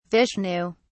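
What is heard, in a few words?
"vishnu", English, British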